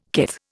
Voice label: "kith", Indian